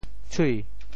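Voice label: "tshui2", Teochew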